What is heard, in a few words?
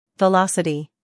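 "scandal", American; velocity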